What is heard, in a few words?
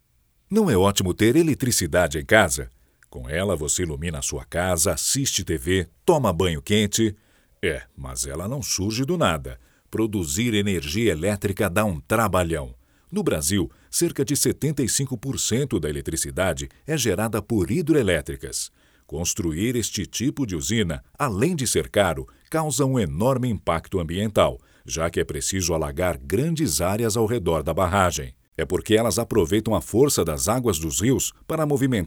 portuguese brazilian documentaries